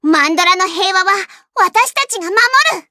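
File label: start, Japanese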